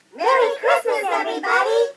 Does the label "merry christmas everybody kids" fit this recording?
Yes